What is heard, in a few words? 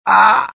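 death, dialogue generic Italian; mohaa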